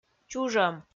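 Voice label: "чужӧм", Komi, female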